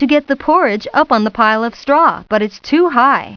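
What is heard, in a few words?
too high story narration; PuttTime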